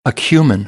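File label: "acumen", American English; AmericanBritish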